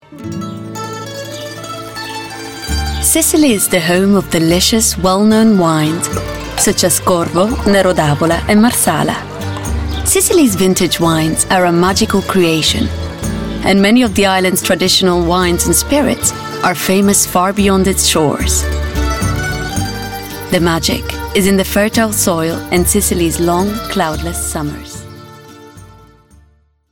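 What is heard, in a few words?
Italian, English accent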